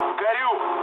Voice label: on fire, mood low, speech ru; War Thunder